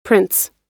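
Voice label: "prints", American English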